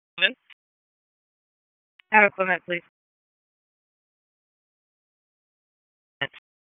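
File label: EMS Dispatch, p25; wake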